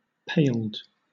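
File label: "paled", British English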